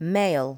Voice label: "mail", english

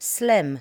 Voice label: "slim", L2 English

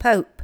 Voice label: "pope", L2 English

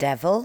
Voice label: "devil", english

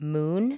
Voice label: "moon", english

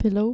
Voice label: "pillow", dutch

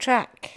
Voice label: "track" english

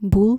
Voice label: "boel", Dutch

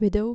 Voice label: "widow", dutch

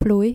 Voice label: "plooi" dutch

